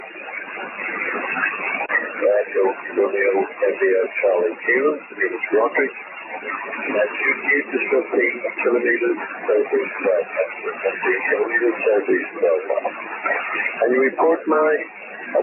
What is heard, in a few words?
20m SSB weak Diversity Stereo NR